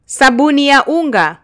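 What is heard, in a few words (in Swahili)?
"sabuni ya unga", Swahili, Kenya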